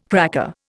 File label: "cracker", Indian